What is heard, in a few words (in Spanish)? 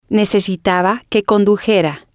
"necesitaba Que Condujera", spanish